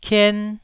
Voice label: "kien1", taiwanese syllable